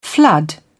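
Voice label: "flood", British English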